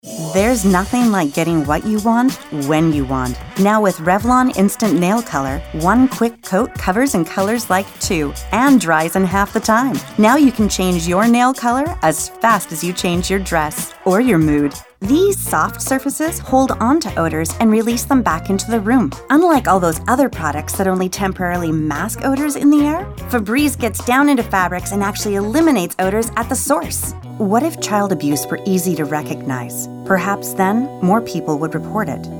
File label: Commercial